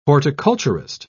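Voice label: "horticulturist", British English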